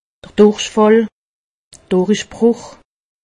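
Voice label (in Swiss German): "durichfall", Haut Rhin; medecine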